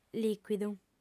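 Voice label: "lìcuidu", female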